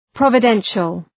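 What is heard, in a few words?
"providential", English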